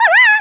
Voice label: "hello", Portuguese; Worms Armageddon

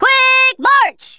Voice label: hurry, Drill Sergeant; Worms Armageddon

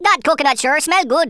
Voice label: "drop", Rasta

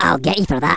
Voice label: "You'll regret that", Angry Scots; Worms Armageddon

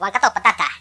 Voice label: watch this, Finnish; Worms Armageddon

